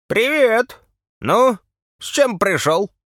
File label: farmer greeting